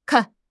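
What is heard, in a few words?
"kan4", Mandarin Chinese; HSK1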